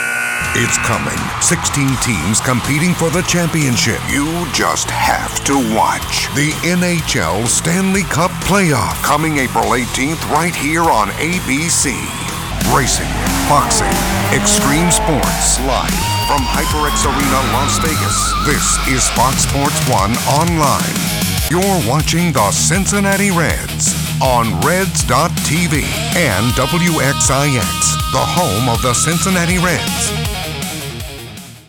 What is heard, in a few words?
english international others; actor experimentado de voz y cámara